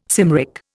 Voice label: "Cymric", Indian